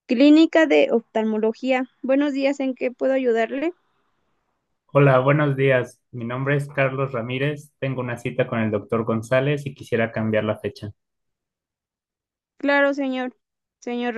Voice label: spanish speech recognition dataset